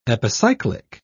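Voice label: "epicyclic", British English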